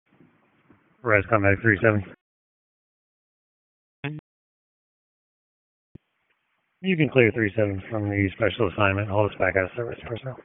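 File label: EMS Dispatch, p25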